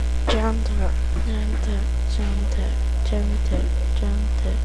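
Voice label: "gentle", spoken word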